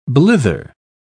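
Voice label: "blither", British English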